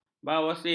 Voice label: "bawasir", ms